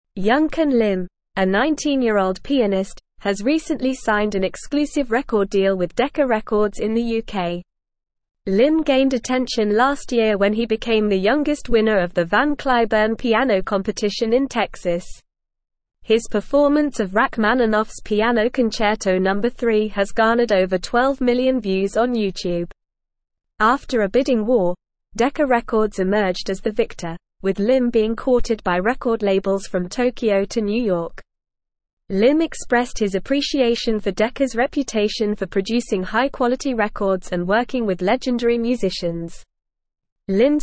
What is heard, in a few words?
English Advanced NORMAL Reading; English Newsroom